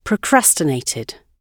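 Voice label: "procrastinated", British English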